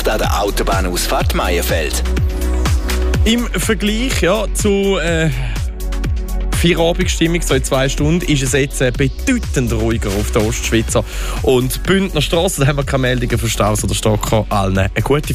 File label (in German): Mitschnitt Radio Verkehr; radio fm1